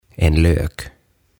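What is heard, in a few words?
"En Lök" Swedish; Sipuli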